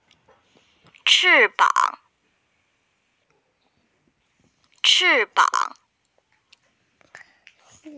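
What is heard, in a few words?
"wing", Mandarin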